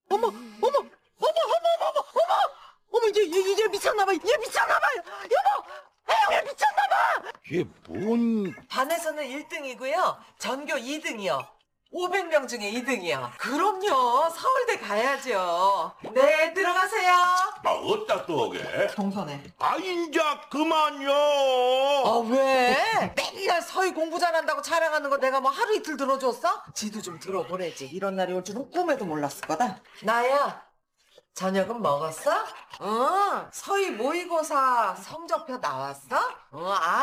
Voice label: vocals; 전교 2등 한 딸~ 엄마와 딸의 엇갈린 반응!